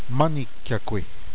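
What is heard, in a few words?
"below", Zuni language; Shiwi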